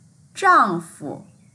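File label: "zhang4fu", Mandarin Chinese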